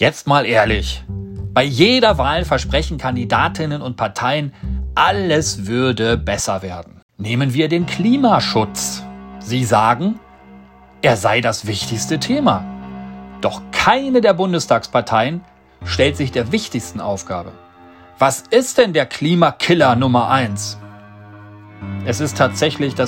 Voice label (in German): Wahlwerbung Radio; V-Partei³